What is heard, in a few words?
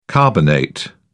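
"carbonate", British English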